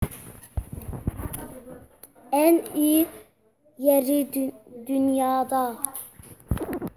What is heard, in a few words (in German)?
tuerkisch